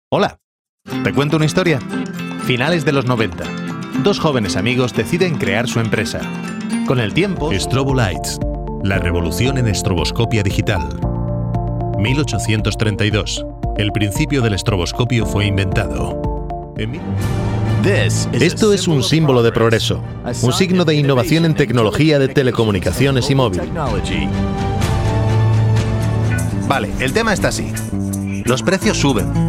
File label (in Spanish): corporativos